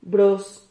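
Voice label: "bros", Spanish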